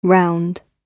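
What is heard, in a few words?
"round", British English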